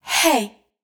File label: adlib Breathy Hey wet, vocals; Adlibs